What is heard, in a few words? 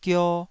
"gio2", taiwanese